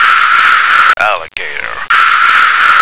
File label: "alligator", American